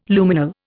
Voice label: "Luminal", Indian